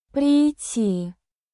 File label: russian phrases